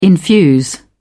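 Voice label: "infuse", British English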